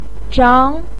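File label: "zhang", Mandarin Chinese syllable, second tone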